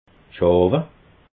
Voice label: "schawe", alsace

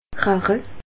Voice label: "rachne", alsace

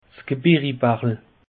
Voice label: "gebirribachel", alsace; peche

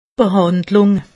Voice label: "behandlung", alsace; medecine

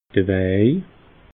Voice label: "waj", alsace

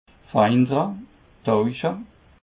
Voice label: "feidere", Alsace

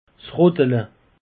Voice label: "rottele", alsace; peche

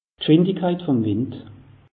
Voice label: "gschwindigkeit", alsace; meteo

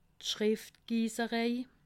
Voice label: "schriftgiesserei", alsace

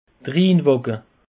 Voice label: "rhinwacke", alsace; peche

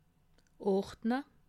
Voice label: "ordne", alsace

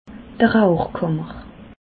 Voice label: "rauchkammer", alsace

